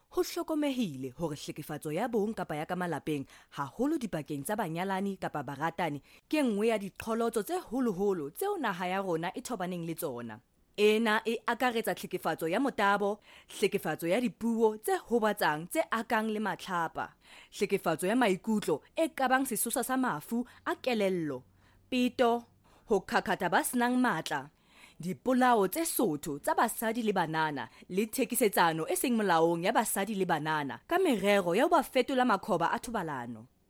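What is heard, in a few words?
General, Sesotho; GoLocalise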